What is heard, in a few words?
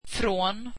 swedish from